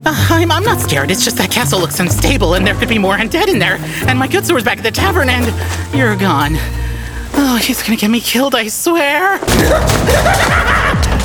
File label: Voice Animation